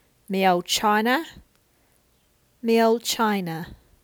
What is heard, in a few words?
Cockney